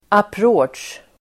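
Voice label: "approach", Swedish; Uttal: [apr'å otsj]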